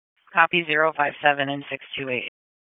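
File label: Police Northeast District Disp, radio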